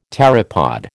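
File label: "pteropod", American English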